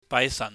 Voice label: "baaisahn", Cantonese

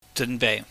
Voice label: "jeunbeih", Cantonese

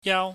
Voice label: "yau", Chinese

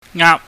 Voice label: "ngaap", Chinese

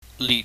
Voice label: "lit", Chinese

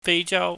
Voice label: "feijau", Cantonese

